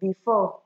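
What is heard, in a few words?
"before", Nigerian Pidgin, female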